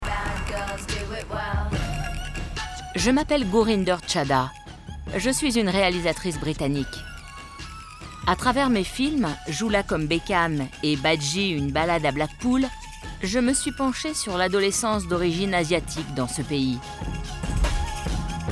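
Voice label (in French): NARRATION, French; PARTITION OF INDIA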